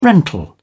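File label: "rental", English, British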